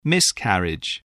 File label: "miscarriage", voice, spelling